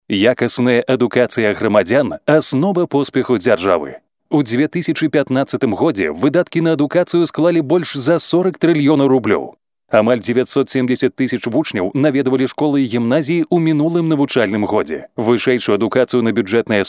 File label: belarusian narration